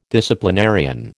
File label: "disciplinarian", American